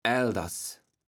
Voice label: "Alders", platt

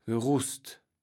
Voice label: "geroest", Kaevels Platt

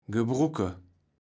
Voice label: "gebrucke", Kaevels Platt

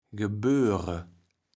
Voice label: "gebööre", Kaevels Platt

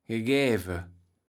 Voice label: "gegäve", Kaevels Platt

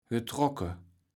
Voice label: "getrocke", Kaevels Platt

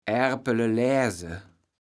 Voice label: "Aerpeleläse", platt

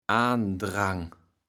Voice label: "Aandrang", platt

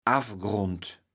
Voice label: "Affgrond", platt

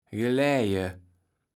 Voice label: "gelaeje", Kaevels Platt

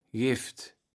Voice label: "geft", Kaevels Platt

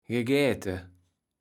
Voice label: "gegäte", Kaevels Platt

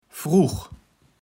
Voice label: "frugg", Kaevels Platt

